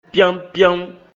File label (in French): "Pyámpyám", Très vite; Mina